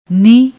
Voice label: "nih", Punjabi

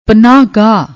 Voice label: "pannah gaah", Punjabi

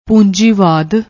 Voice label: "poonjivaad", Punjabi

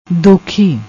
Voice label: "dokhi", Punjabi; ਦੋਖੀ

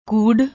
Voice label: "kood", Punjabi

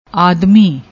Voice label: "aadmi", Punjabi

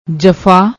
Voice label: "jfa", Punjabi

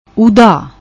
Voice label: "udda", Punjabi; ਊਦਾ

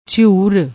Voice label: "jhiour", Punjabi

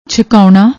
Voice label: "chhakaunna", Punjabi

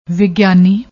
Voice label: "vigani", Punjabi

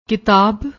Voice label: "kitab", Punjabi

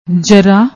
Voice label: "jarra", Punjabi

